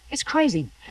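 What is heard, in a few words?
voice acting, es, female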